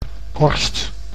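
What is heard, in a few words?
"korst", Dutch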